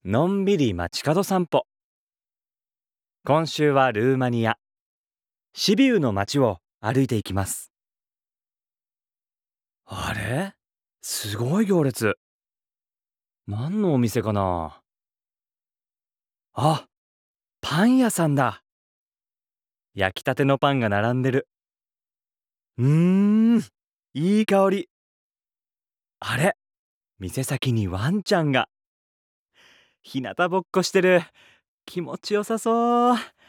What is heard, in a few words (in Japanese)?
ナレーション